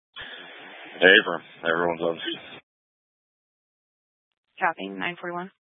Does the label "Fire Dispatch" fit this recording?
Yes